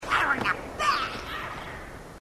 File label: grunt; h2dialogue; grunt crazy